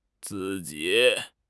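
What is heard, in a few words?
"zi4 ji3", Mandarin Chinese; HSK3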